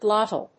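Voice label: "glottal", English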